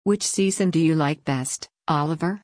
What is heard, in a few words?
fast